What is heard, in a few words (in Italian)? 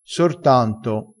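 "sortanto", vocabolario dialettale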